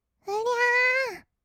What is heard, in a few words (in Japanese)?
うりゃあー, kawaii voice; ASMR; 色々なワンショット; 1200 kawaii voice!!